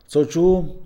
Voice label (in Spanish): "avergonzarse", Mixe, hombre; Emociones; INALI